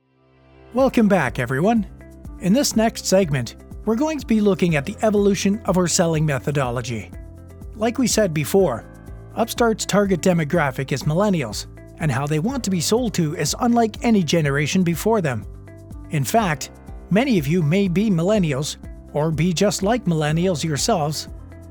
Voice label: Narration Corporate Male

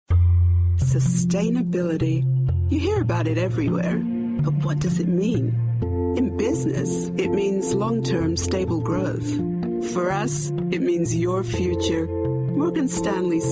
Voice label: British Voice Female Narration

